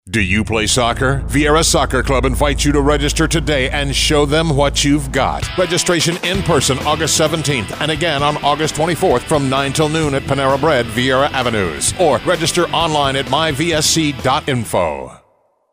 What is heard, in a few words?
radio ad